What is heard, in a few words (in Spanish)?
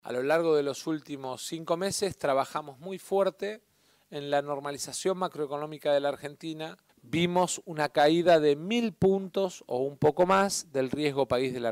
anuncio